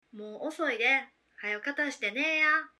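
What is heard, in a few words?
Sentence Kansai; Japanese dialects; Kyoto and Kansai Dialects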